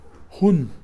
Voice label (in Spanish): uno, Kakchikel; Números